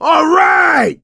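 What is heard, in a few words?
battlecry, male; survivor; coach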